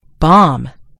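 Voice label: "bomb", American English; gcompris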